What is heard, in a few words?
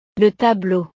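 "le tableau", French; utiles escolares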